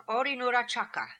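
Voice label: "Ori Noora Chaka", pronunciations; Fourth Way; Beelzebub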